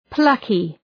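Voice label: "plucky", English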